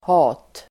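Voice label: "hat", Swedish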